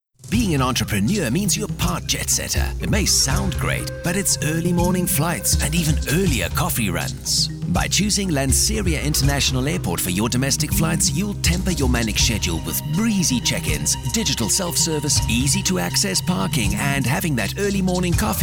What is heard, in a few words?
ENGLISH young authoritative